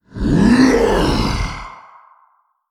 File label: Male Old God Attack